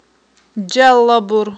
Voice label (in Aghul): "джаллабур", aghul